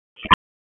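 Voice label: Raleigh Police Northeast District Tactical; wake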